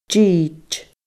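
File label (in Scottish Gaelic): "did", Scottish Gaelic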